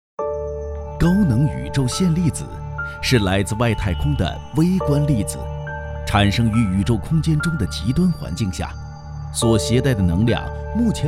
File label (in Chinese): 纪录片 天体类星体探索; 中科院